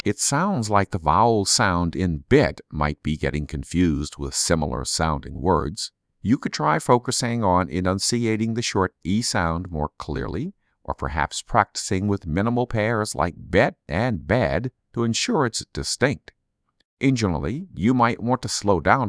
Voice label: Airport Announcements; S2S-Bench; cascade